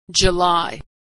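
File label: "July", American English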